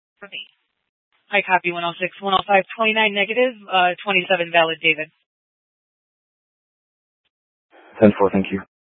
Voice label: Scottsdale Police, Simulcast

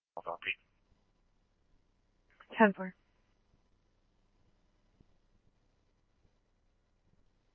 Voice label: scanner, Scottsdale Police

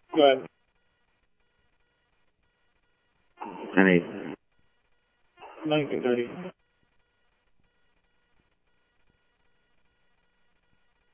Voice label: scanner audio trunk; ASU; ASU Park 1

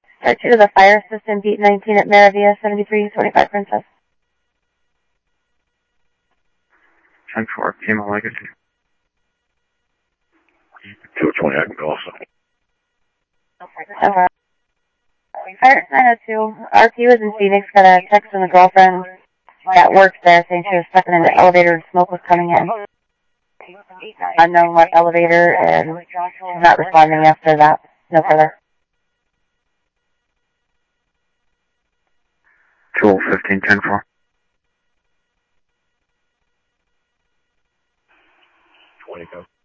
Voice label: Scottsdale Police, scanner

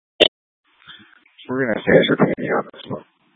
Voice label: Fire Dispatch; K8 - FDCOM 8 - South; B- Phx Fire and Public Works

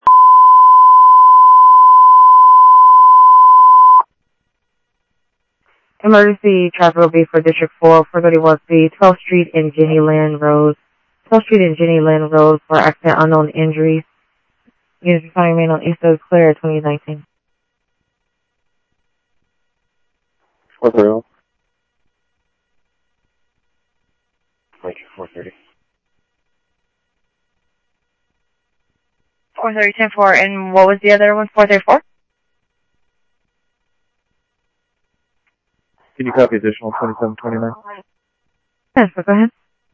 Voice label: Sheriff, scanner audio

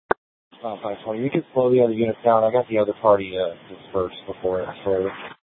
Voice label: Sheriff, scanner; Maricopa County (P25) - Thompson Peak; Shrf Lakes

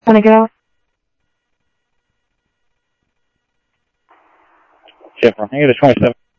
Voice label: Info Sheriff Common, scanner audio trunk; MCSO - Info Sheriff - Common; ThompsonPeakScot

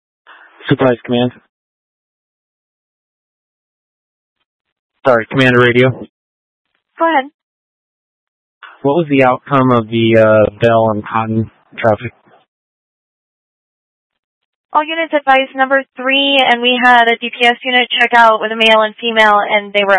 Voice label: Mutual Aid-Intersystem Interop B, scanner

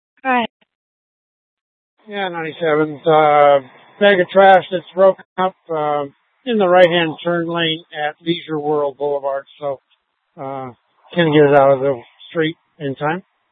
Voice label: Dispatch, scanner

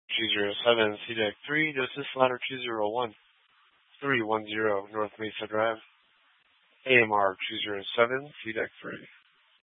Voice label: FD Dpts VHF 156.240 Patch

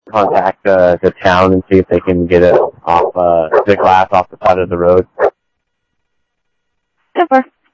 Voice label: Sheriff, scanner audio

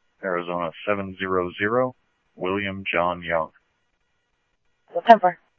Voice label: Police, scanner audio

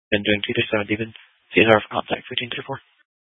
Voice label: Phx PD Dispatch, scanner

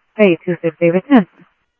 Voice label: Sheriff Central, scanner audio trunk; MCSO Central Sheriff-Central; ThompsonPeakScot

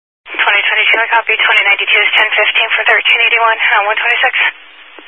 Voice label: Highway Patrol, Phoenix Metro East Area, scanner

